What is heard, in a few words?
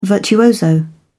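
"virtuoso", English, British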